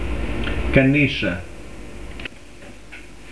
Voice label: "cannistra", Carigliaro